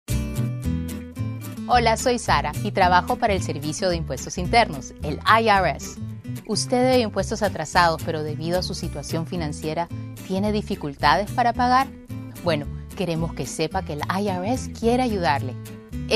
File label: spanish; fresh start; irs